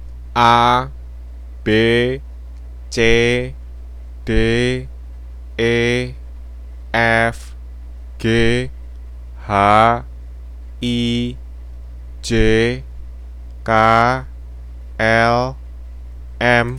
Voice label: Indonesian alphabet a m